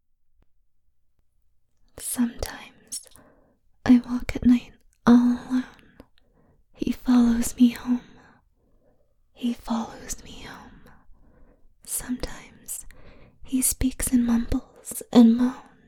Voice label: spooky poem whispers binaural; He Follows Me Home; stereo

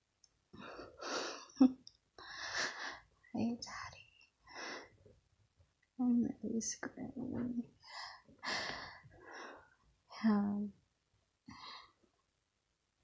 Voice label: Begging

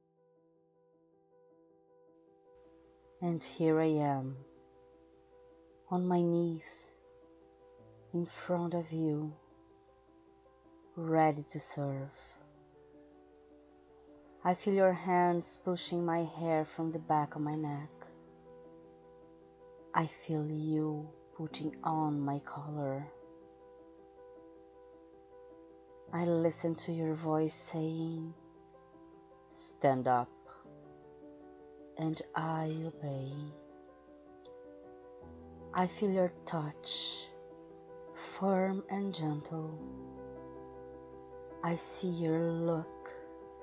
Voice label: [F4A] [Erotic poetry][Flogging][Sfx]; The burning kiss

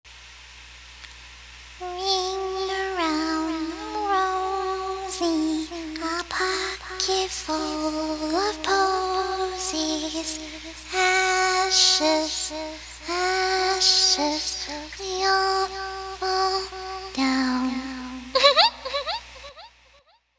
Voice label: F Creepy little girl test

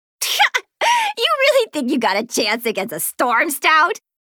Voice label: Social Taunt; Li Li; Heroes of the Storm; warcraft

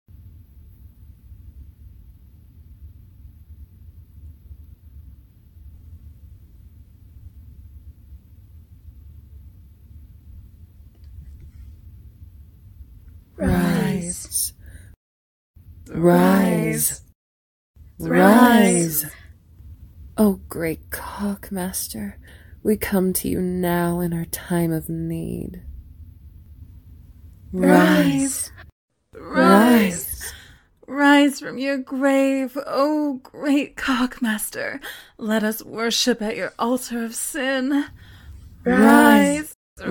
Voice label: Nuns Sans Some Ambience

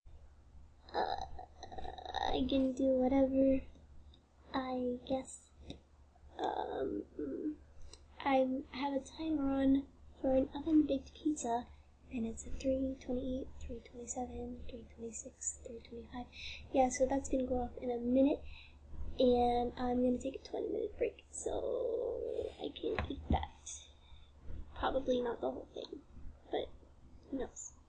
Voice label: Normal voice; Fluttershy

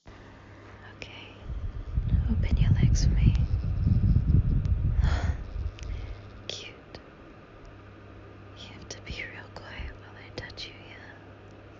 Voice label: bratty version; bullying part #2 electric bugaloo

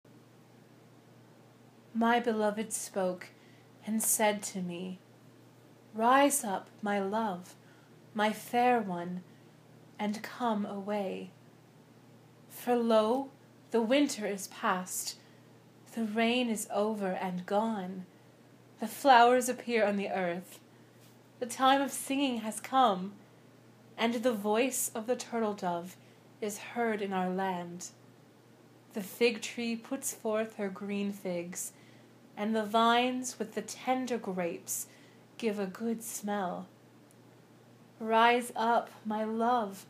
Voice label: [F] [poetry]; beauty; springtime; Rise Up My Love; Song of Songs

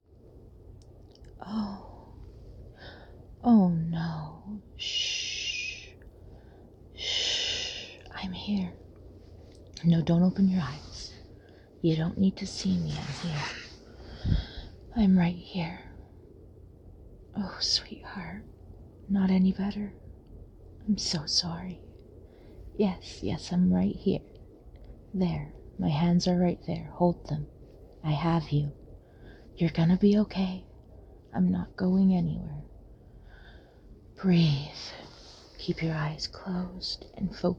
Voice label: Whispe, Head Massage, Relaxation; F4A; Little Smiles; Migraine; Pain Relief; Comfort; Whispers; Breathing

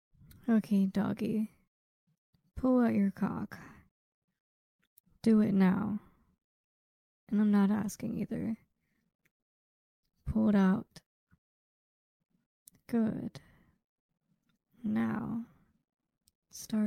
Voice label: F4M ASMR Name-calling Dom Praising; Erotic audio; Your Dom Gf Gives You JOI; Your Cute Girlfriend

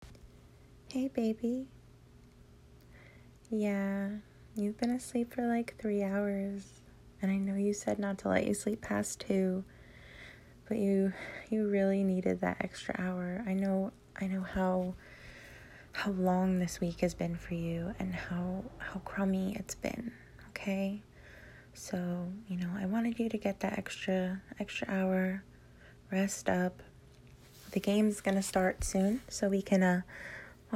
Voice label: Cute and loving, F4A; GFE; football; lbomb

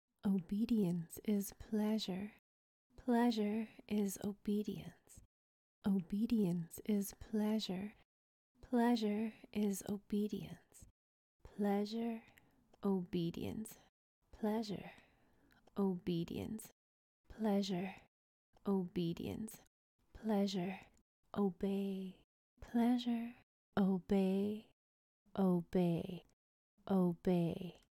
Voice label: Obedience is Pleasure Mantra

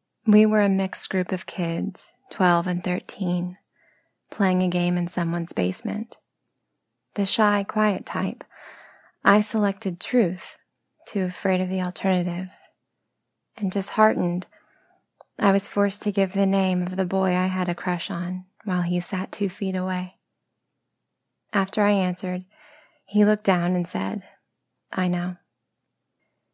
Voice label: original poetry; Greasy; F4A; adolescent awkwardness; crushes; truth or dare

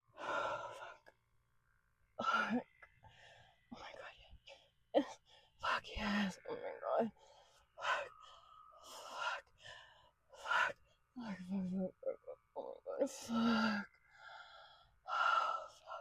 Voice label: [F4A] VERY WET ramblefap [wet noises]

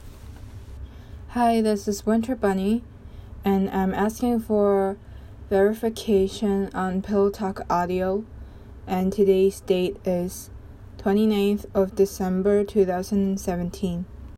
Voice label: Verification, F; rpillowtalkaudio

